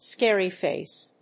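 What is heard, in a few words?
"scary face", English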